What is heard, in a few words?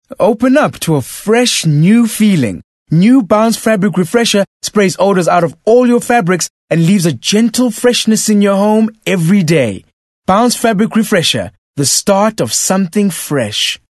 English Bright